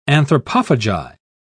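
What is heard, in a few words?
"anthropophagi", English, British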